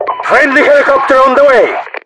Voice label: friendly heli; mpvoice